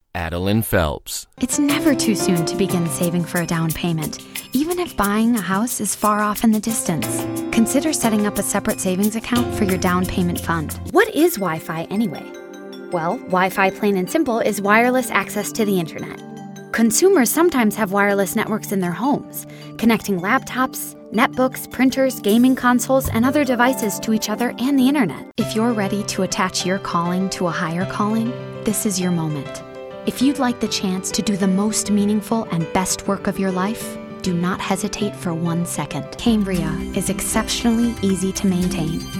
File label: Narrative